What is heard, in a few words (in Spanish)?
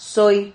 "soi", Silabas